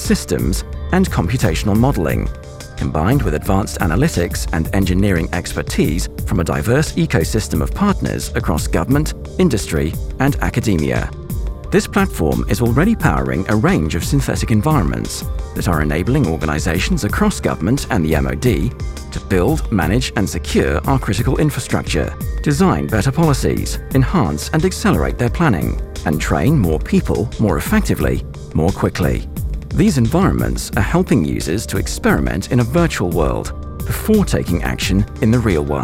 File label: integrated security corporate video voice over; Improbable Interrelated threats